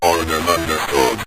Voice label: orders Understood, Synth Voice; Kenney's Sound Pack

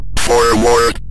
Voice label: direction forward, Synth Voice; Kenney's Sound Pack; Synth Voice 1

